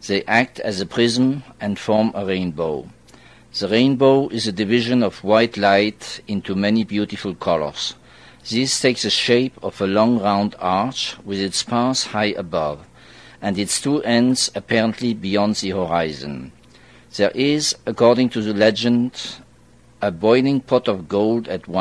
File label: france, dialects